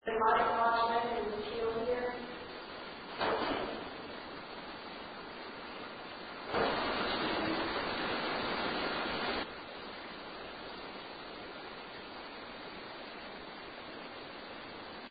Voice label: museum noise girls voice very clear